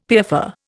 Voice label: "piaffer", Indian; Dictionary